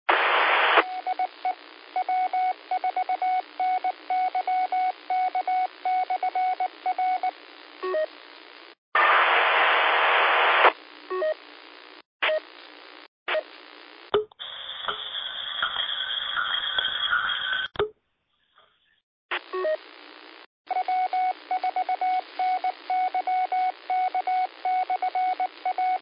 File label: qso rec simplex logic